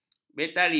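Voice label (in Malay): "betari", Malay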